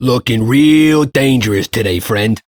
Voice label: Ambient Shopkeeper, Dialog; Duviri

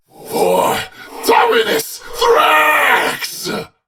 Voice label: Attack, en; Warframe; Battle; Dax; DaxKnight

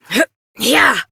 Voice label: Horse Sprint Adult; Duviri

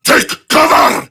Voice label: Prime Grineer Male; Battle Barks; en; Tau

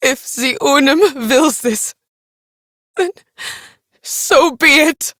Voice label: Villagers Fem en

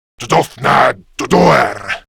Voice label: Reload, Male; Warframe; Grineer Male; Battle Barks

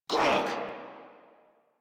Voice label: Surprised Crewmen, en

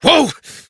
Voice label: Horse Stop Adult; Duviri

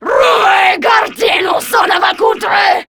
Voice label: Player Spotted, Grineer Female

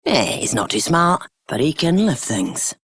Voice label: Female Scum, en; Dialog; Warframe; Solaris Venus

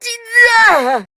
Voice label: Death, Grineer Queens Guards, Battle Barks, en; Warframe

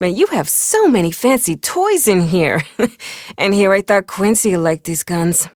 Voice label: Ambient Barks, en; Warframe; Mall Romance; Lettie

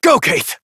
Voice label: Horse Sprint Adult; Duviri

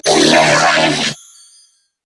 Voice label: Death Grineer Female; en; New War; Narmer Grineer

